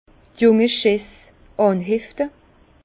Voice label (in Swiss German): "junge", Bas Rhin; lier les jeunes pousses; vin